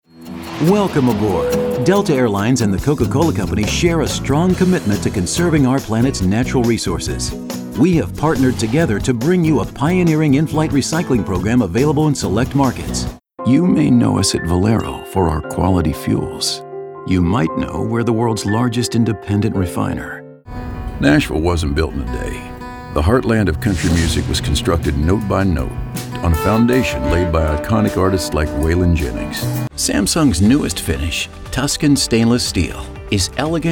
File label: Corporate Narration